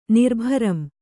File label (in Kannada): "ನಿರ್ಭರಂ", Kannada